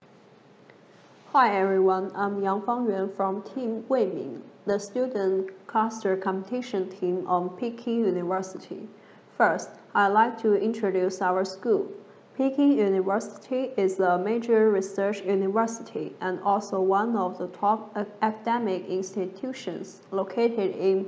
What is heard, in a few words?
Voiceover; ClusterComp 2020